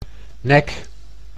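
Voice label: "nek", Dutch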